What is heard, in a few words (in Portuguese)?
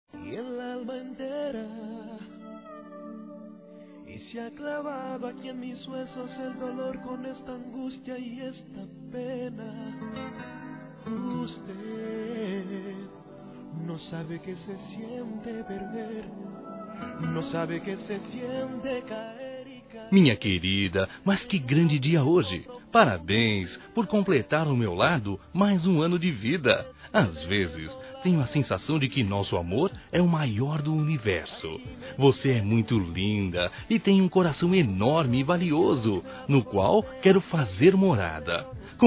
ANIV. ROMANTICO M